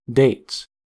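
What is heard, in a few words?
"dates", US English; pronunciations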